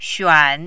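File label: "xuan3", mandarin, dipping tone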